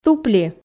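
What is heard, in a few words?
"тупли", Komi, female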